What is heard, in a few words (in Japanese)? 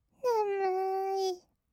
"ねむーい", kawaii voice; 1200 kawaii voice!!; 色々なワンショット